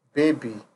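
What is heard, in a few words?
"baby", Nigerian Pidgin, male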